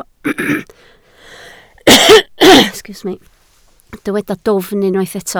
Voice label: "dwfn", Welsh